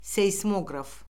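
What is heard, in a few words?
"seysmoqraf", Azerbaijani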